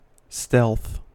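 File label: "stealth", US English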